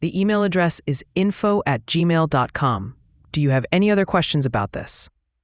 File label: question english